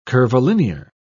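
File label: "curvilinear", British English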